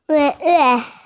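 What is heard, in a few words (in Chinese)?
"zuo wei", Mandarin Chinese, female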